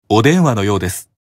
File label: Call Notification Voice; Barbatos